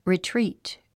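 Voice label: "retreat", English word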